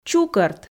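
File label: "чукӧрт", Komi, female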